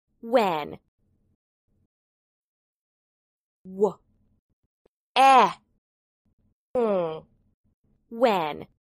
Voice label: "when", English